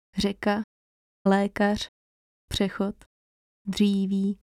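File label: fricative trills; fonetika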